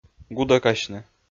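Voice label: "гудӧкасьны", Komi, male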